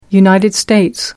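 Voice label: "United States", British English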